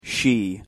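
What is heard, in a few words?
"she", English pronunciation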